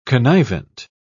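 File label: "connivent", British English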